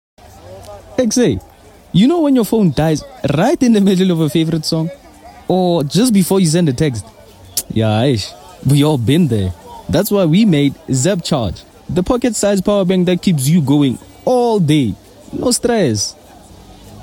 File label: conversational